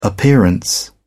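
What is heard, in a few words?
"appearance", British English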